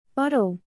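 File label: "BOTTLE", English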